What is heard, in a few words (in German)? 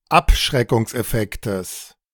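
"Abschreckungseffektes", German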